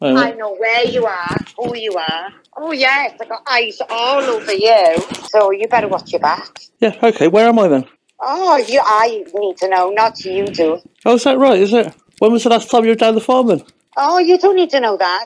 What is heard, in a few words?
unknown female